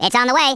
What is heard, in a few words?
incoming, US Sports, Speech